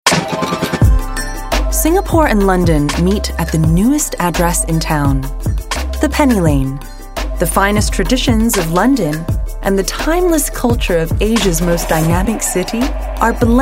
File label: Asian English Property Spot